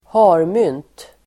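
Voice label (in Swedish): "harmynt", Swedish; Uttal: [²h'a:rmyn:t]; hare-lipped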